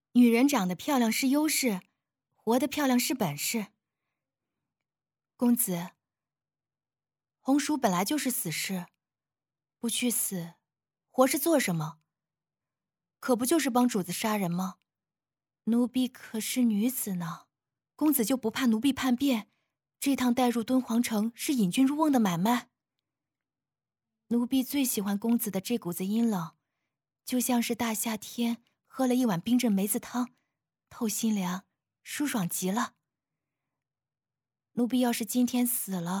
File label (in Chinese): 慵懒御姐